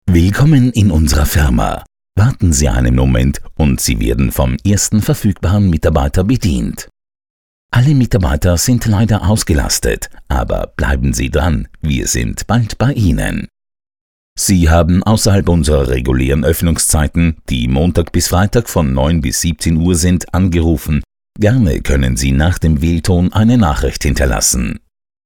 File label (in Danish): DE FORMEL MAND; Stemmebasen